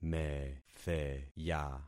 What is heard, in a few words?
"metheya", concat syllables; Affixing experiment